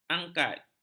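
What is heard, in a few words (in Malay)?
"angkat", Malay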